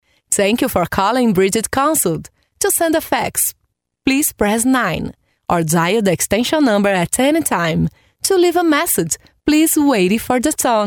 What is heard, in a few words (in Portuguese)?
ingles espanhol locutora